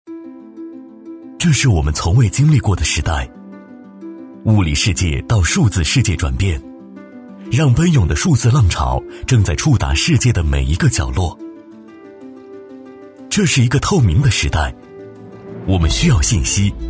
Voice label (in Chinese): 男 专题配音 科技感; 大气沉稳; 沉稳大气